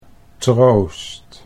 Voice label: "troost", Dutch

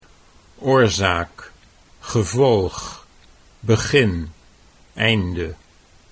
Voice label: "oorzaak gevolg", Dutch

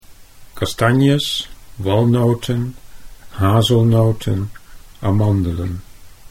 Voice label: "kastanjes", Dutch

